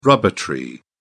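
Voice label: "rubber tree", British English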